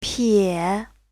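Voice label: "pie3", Chinese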